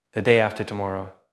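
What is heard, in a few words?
Voice Acting, Spanish, male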